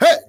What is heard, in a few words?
"hey", male